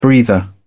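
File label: "breather", British English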